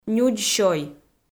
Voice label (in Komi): "нюдз сёй", Komi